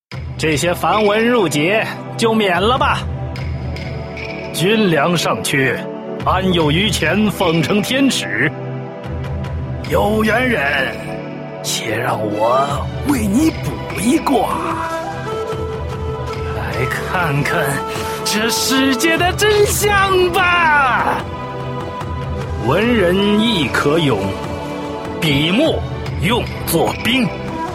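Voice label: male middle-aged and elderly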